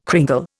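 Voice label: "cringle", Indian English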